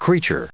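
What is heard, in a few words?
"creature", English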